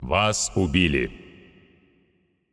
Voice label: Announcer Russian Match Slain You